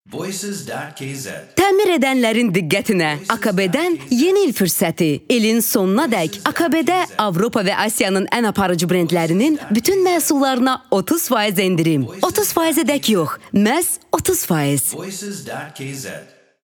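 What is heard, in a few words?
Voice Azerbaijani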